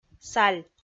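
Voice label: "саль", Komi, female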